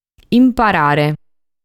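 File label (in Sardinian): "Imparare", Sardinian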